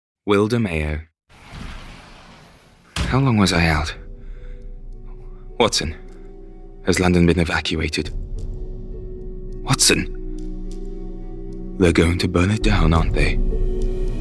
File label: Character Gaming Voice